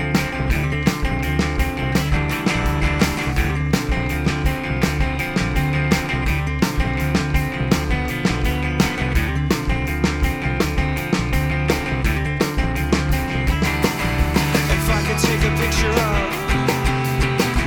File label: robocall show reminder language live answer; Hear a past show reminder robocall